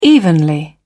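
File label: "evenly", British English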